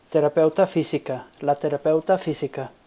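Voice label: "terapeuta fisica", Spanish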